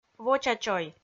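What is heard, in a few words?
"воча чой", Komi, female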